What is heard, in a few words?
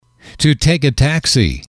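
to take a taxi, English, male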